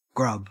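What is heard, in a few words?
"grub" Australian English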